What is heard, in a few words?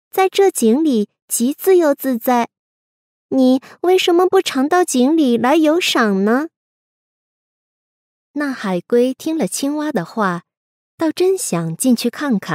chinese mandarin audiobooks